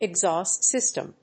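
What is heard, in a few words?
"exhaust system", English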